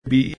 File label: "B", taunt